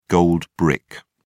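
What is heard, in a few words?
"gold brick", British English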